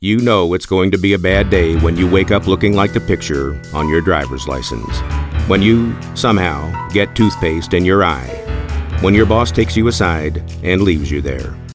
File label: Dry; Dry Read